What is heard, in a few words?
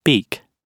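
"beak" British English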